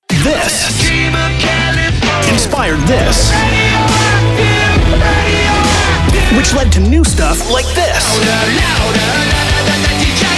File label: Radio Imaging ROCK